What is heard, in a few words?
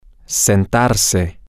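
Spanish, to seat herself, male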